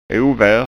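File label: "ovar", Scanian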